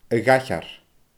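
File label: "a-dhaithghearr", Scottish Gaelic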